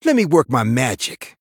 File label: Male Human Play